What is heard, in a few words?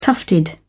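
"tufted", British English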